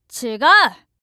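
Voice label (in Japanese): "違う", Japanese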